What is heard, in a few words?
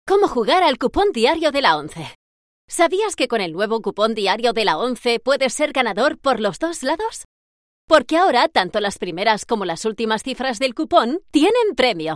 spanish promos